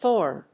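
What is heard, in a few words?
"thorne", English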